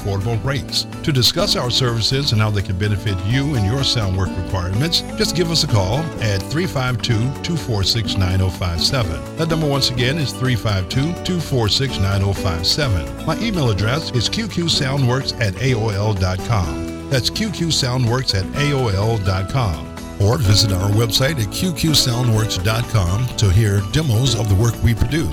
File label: commercial SPOT